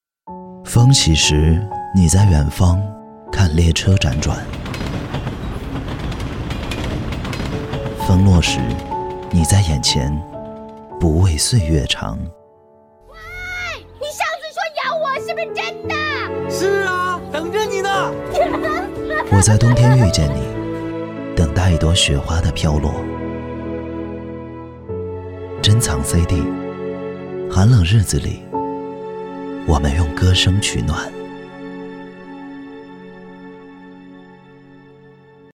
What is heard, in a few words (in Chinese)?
男 音乐节目片花